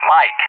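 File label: "Mike", English; JTAC; Alphabet; DCS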